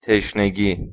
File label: "teshnegi" thirst, Persian, M